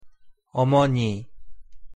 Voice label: "어머니", Korean; pronunciation